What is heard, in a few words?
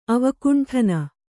"ಅವಕುಂಠನ", Kannada